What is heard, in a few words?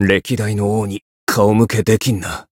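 Voice win barely, Japanese; claude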